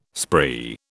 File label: "spree", British